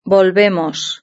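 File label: "volvemos", Spanish; verb-conjugator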